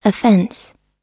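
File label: "offense" US English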